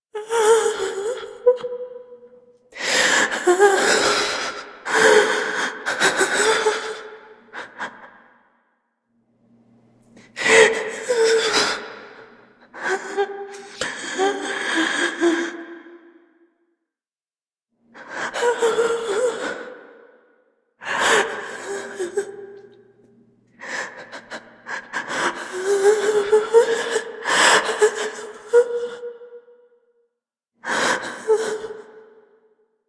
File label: Class Intro Student Female A, Student Whimper; New War; Zariman